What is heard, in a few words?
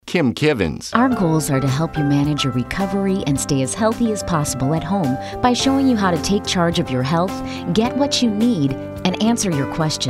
female narration